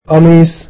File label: "shirt", egyptian